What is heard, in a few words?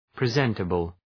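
"presentable", English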